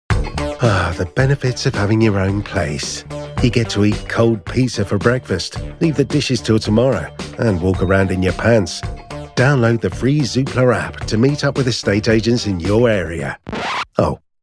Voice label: Commercial